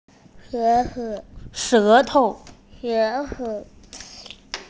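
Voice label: "she2tou5" Mandarin Chinese, female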